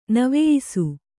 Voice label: "ನವೆಯಿಸು", Kannada

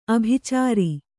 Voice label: "ಆಭಿಚಾರ", Kannada

